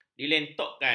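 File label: "dilentokkan", Malay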